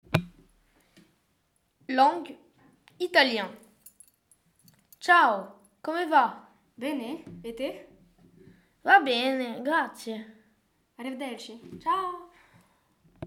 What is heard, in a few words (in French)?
Italien